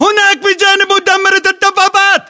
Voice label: landmark near tank, localized english battlechatter